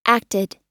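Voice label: "acted", American English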